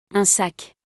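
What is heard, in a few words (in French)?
"Un sac", French; vocabulaire; le lexique de l'habillement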